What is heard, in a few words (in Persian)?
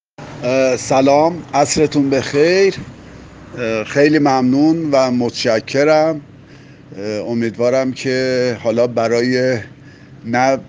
صدای مشتری تبریز رضایت از خرید تاج گل